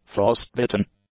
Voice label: "frostbitten", English; adjectives